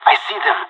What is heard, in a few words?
radio enemy spotted, pirate; Counter Strike Model Pack; CS:GO